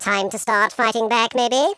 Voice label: "come on then", Patronising